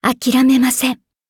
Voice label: levelup ja; Cookie Run